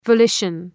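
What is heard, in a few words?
"volition", English word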